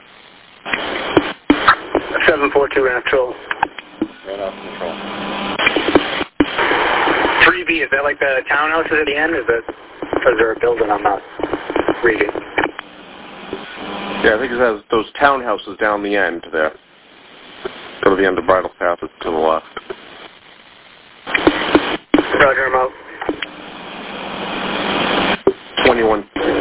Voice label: Police Radio